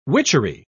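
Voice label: "witchery", English, British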